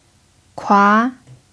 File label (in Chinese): "kua2", f; chinese